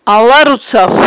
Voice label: "аларуцаф", Aghul

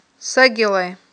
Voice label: "сагелай", Aghul

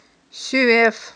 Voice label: "суьвеф", Aghul

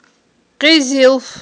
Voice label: "къизилф", Aghul

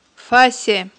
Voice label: "фасе", Aghul

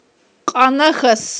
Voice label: "кьанахьас", Aghul

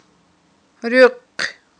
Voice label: "руькъ", Aghul

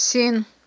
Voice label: "син", Aghul

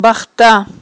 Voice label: "бахтта", Aghul

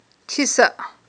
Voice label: "тисаъ", Aghul

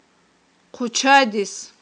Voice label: "хъучадис", aghul

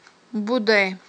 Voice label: "будай", Aghul

